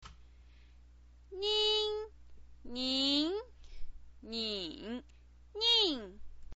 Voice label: "nin", Chinese pinyin voice